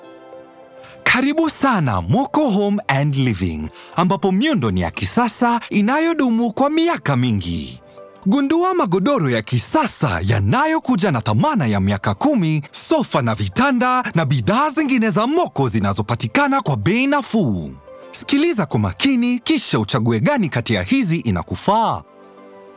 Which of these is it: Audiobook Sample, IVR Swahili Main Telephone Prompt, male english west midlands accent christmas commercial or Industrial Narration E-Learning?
IVR Swahili Main Telephone Prompt